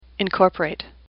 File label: "incorporate", English; AWL